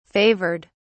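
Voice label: "favoured", British English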